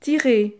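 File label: "tirer", French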